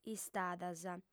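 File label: "istadas", Sardinian, female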